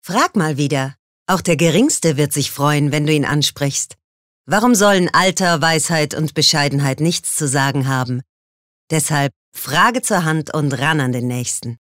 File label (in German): Werbung Dynamisch